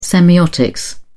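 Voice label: "semiotics", English, British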